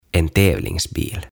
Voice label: "En tävlingsbil" "Kilpa Auto"

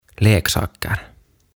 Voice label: "Leksaker" "Lelut"

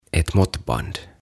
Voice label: "Ett måttband" "Mittanauha"

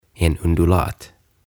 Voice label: "En Undulat" "Undulaatti"

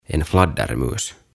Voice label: "En fladdermus" "Lepakko"

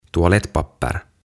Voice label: "Toalettpapper" "WC-paperi"

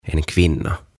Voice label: "En kvinna" "Nainen"

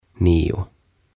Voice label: "Nio" "Yhdeksän"

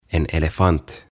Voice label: "En elefant" "Norsu"

